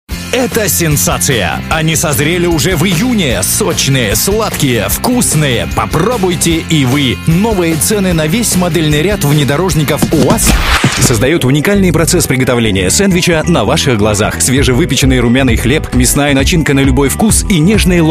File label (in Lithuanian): Rusiškas balsas, vyras